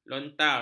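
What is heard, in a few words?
"lontar", Malay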